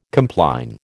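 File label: "compline", American English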